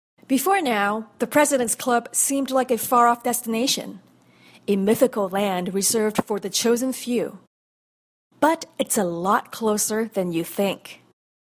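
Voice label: Chinese Canada English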